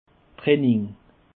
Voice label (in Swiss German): "training", alsace